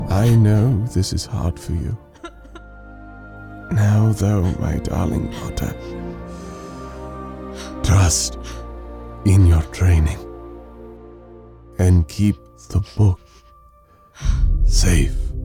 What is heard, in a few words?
Animation, voice over